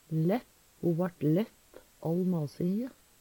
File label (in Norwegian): lett, Numedalsmål; Veggli; Adjektiv